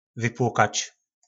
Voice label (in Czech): "Vypłókač", nářečí Po našimu; Vypláchnout